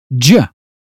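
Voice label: "jump", British English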